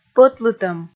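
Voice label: "пӧтлытӧм", Komi, female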